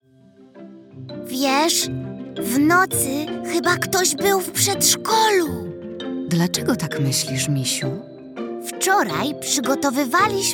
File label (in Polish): narracja; czasopismo mis; zima; Zimowe ciekawostki – rozmowa